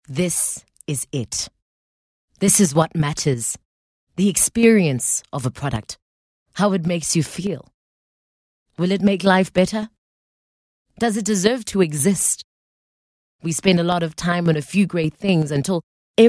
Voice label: English soft sell; OSM Talent